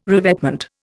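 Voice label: "revetment", Indian English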